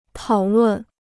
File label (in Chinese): "讨论", Chinese